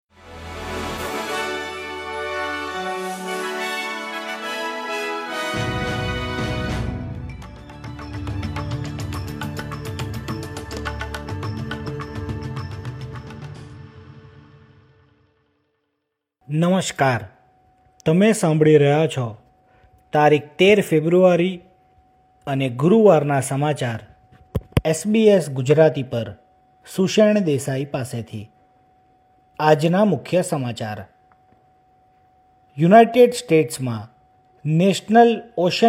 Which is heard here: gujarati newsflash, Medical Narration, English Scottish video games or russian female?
gujarati newsflash